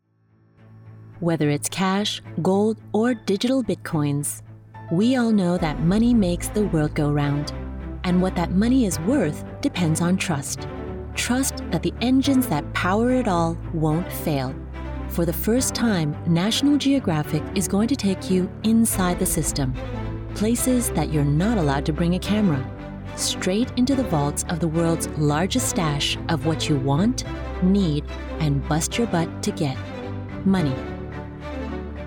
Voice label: Doco